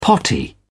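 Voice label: "potty", British English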